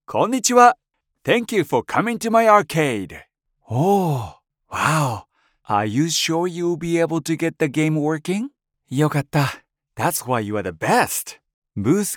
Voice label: animation

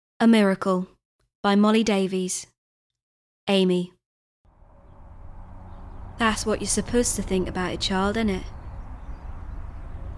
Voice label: Norfolk Accent; A Miracle

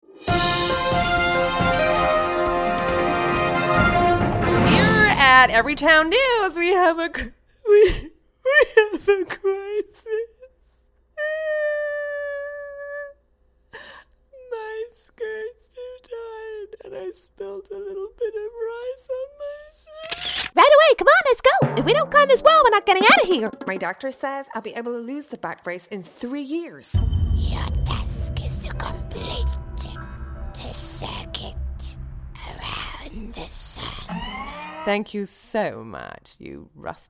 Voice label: animation

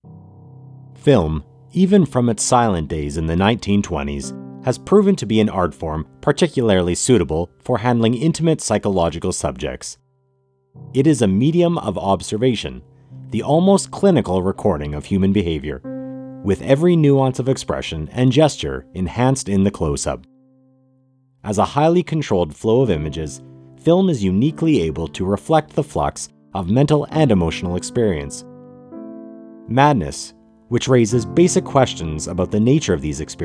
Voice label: narration

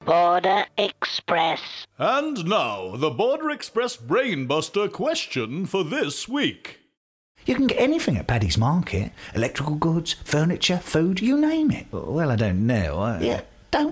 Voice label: Ads Character Voices

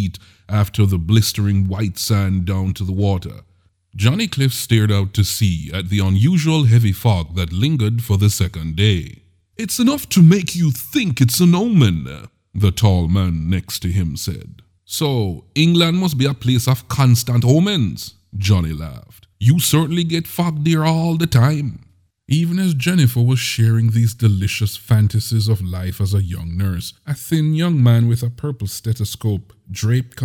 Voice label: audiobook Caribbean African

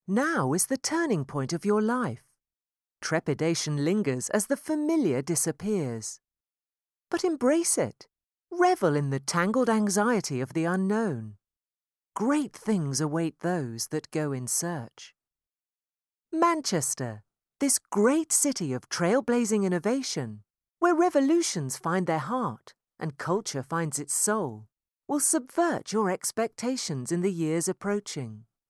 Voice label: POSITIVE UPBEAT Welcome Promo film

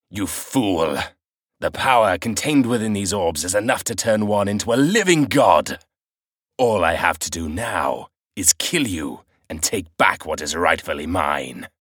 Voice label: Evil Speech

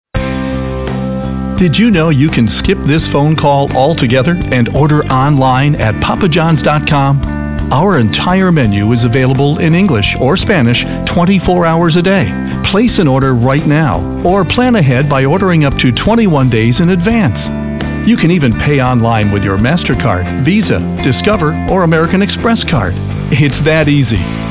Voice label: On Hold; Papa Johns

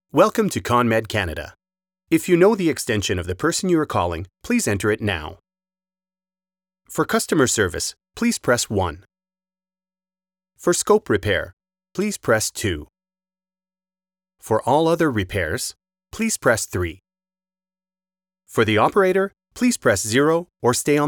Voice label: PHONE GREETINGS ENGLISH; CONMED

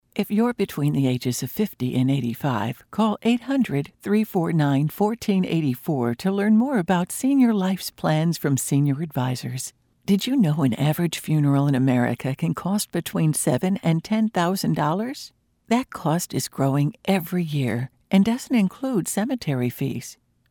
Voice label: senior

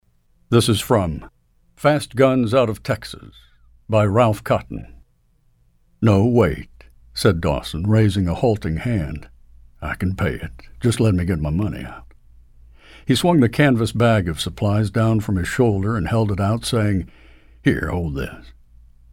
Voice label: Non Union Adult Male Audio Book; Fast Guns

